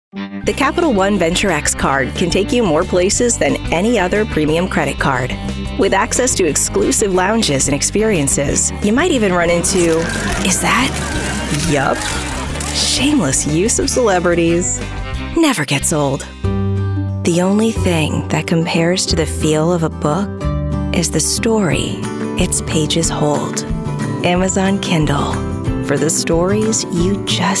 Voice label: Commercial VO